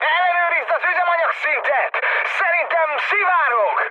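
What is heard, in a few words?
Hungarian tank damaged mood high, speech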